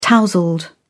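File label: "tousled", British English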